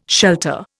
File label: "shelter", Indian